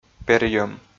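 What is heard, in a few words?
"перйӧм", Komi, male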